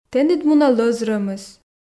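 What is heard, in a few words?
"тэныд мунӧ лӧз рӧмыс", Komi, female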